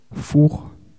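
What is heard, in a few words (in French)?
"four", French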